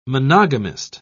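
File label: "monogamist", English, British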